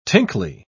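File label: "tinkly", British English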